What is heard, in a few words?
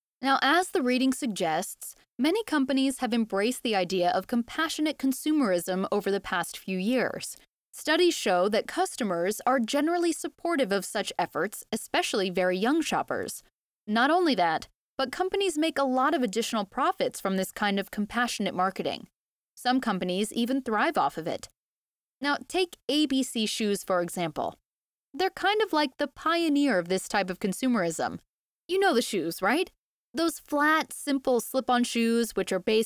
student conversation; toefl